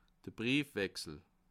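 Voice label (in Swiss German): "briefwechsel", Bas Rhin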